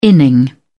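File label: "inning", British English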